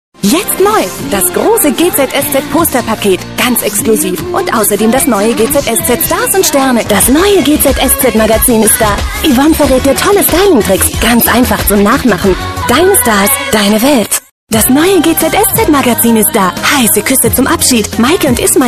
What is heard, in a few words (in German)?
Spots; GZSZ; RTL